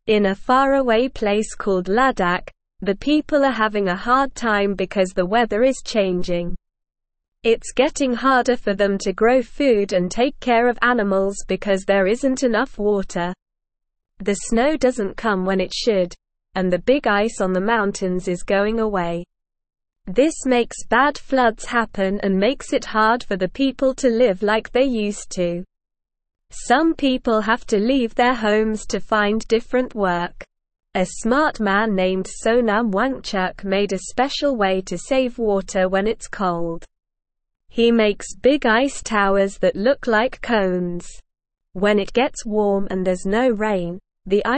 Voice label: English Beginner SLOW Reading; Saving Water in Ladakh Ice Towers Help People